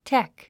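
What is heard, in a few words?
"tech", English word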